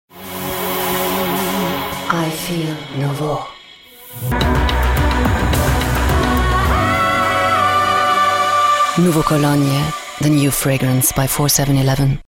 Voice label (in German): Eng UK Werbung Dynamisch